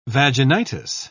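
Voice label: "vaginitis", British English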